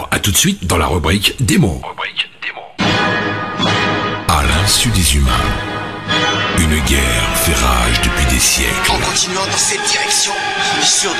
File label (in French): voix off